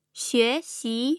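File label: "xuexi", Mandarin Chinese, tone pairs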